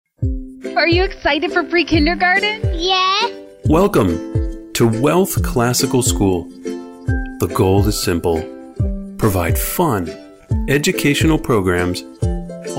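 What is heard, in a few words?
narration, Male Voice Artist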